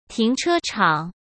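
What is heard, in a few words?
"停车场", Mandarin Chinese; hsk; chinese-flashcards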